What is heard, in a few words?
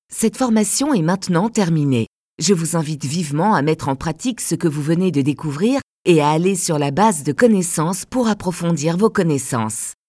french e-learning